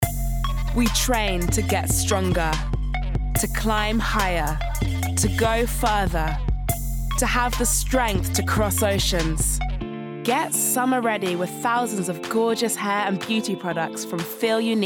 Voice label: Commercials Montage